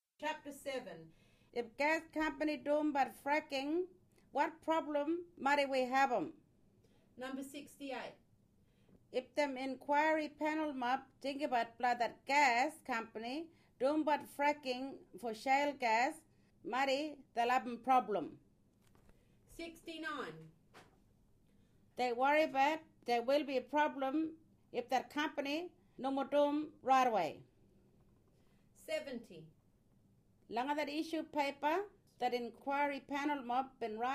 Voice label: Kriol; Fracking